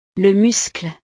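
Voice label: "le muscle", French; partes del cuerpo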